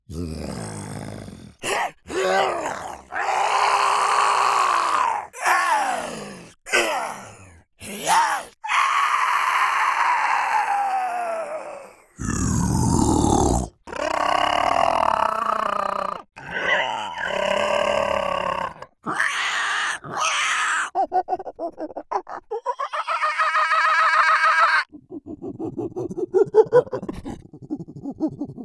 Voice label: Creature